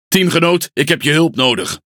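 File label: NL Speech Quick Commands Need Support Ship, Dutch; World Of Warships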